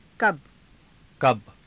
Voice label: "kaba", Hindi

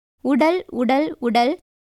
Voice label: Tamil; உடல்; uṭal; [vuɖəl]; body; 体、肉体

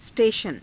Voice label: "s teshana", hindi

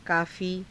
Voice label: "kaafii", Hindi

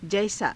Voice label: "jaisaa", Hindi

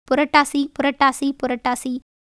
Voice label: Tamil

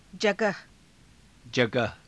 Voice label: "jagaha", Hindi

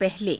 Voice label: "pahale", Hindi

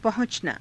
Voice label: "pahu~canaa", Hindi; पहुँचना; [自動]着く

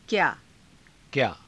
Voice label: "kyaa", Hindi

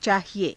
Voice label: "caahie", Hindi; [不変]必要です; चाहिए

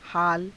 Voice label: "haala", Hindi

